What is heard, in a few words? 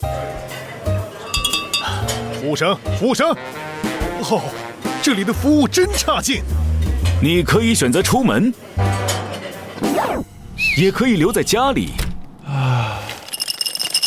Mandarin Ad Waiter; 双点校园; 电台广告; 低端科技